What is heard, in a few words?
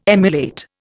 "emulate", Indian